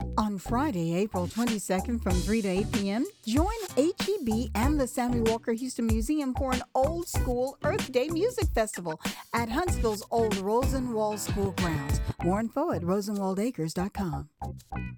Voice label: PSA; Earth Day Fest